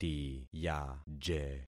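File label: "tiyaje", concatenated syllables; Affixing experiment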